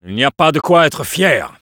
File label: jeers, fr